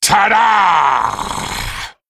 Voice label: Male Worgen Attack